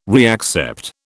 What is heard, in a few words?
"reaccept", British